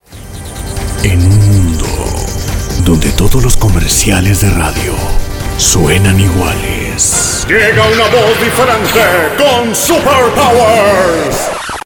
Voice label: SPANISH